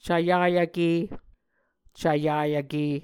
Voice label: "cvyayvke", muscogee

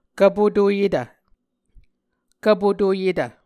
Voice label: "kvpotoyetv", Muscogee

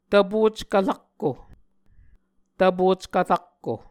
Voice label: "tvpockv-rakko", muscogee

